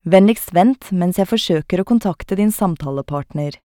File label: Please Hold, norwegian; voice prompts